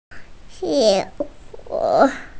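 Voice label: "jié guǒ", Mandarin Chinese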